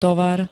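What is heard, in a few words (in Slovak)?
"tovar", slovenské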